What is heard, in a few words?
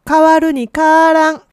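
"kaaran", Japanese dialect, woman; hogen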